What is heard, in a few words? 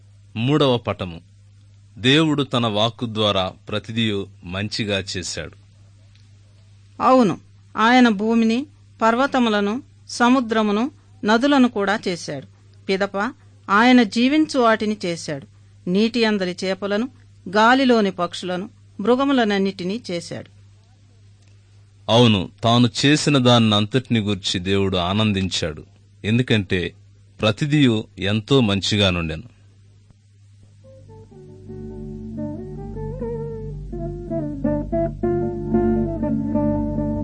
good news telugu